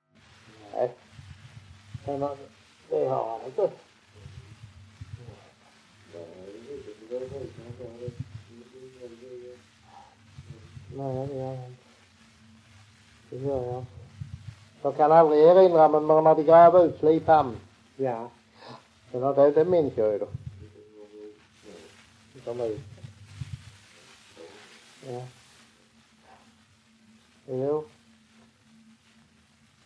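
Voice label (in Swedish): Raus Skåne, dialekter svenska sagor; Folkminnen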